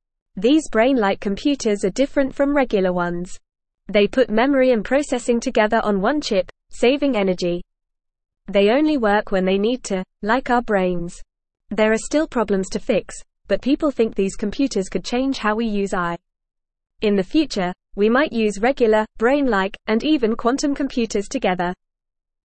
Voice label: English Newsroom Beginner FAST Reading; Brain like Computers Faster Energy saving Future for AI